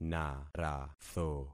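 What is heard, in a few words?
"naratho", concatenated syllables; Affixing experiment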